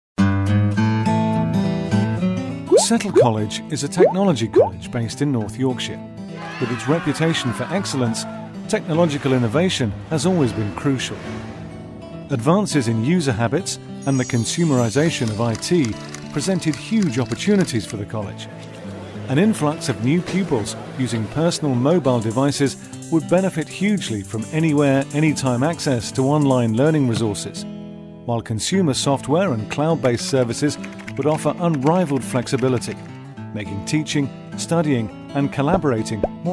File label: english british corporate videos; professional British English voice actor